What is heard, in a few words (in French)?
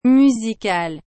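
"musical", French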